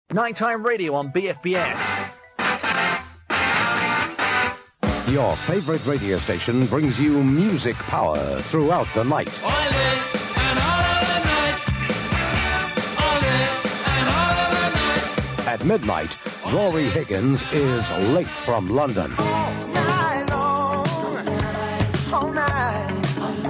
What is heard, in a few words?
trailers; bfbs; tommy vance & rass